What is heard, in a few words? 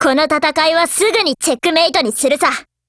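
black action, Japanese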